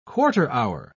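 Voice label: "quarter-hour", English, British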